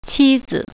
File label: "wife", Mandarin